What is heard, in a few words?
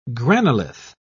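"granolith", English, British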